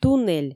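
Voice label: "туннель", Komi, female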